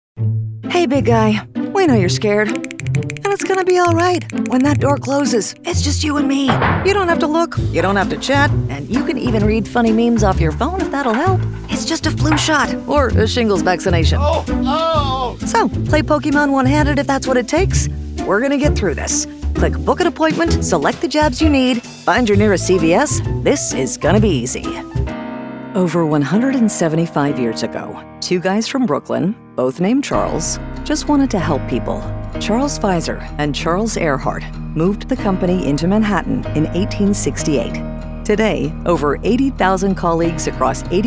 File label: CORP EXPLNR